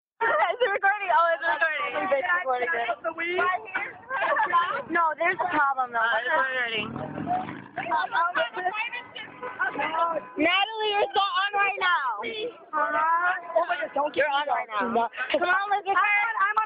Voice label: Chatter